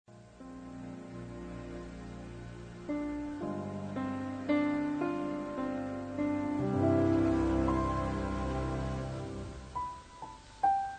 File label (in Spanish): Poesia, Voz; Para tu soledad